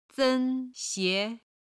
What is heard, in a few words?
"sen1 xie2", Chinese